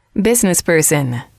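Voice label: "business person", female, English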